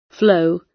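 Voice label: "flow", British English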